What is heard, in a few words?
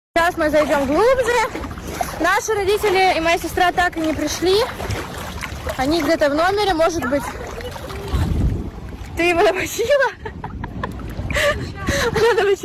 video voice call; fake call; lady diana